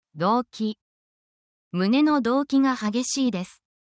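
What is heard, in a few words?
Japanese word, essential Japanese phrases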